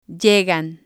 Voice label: "llegan", spanish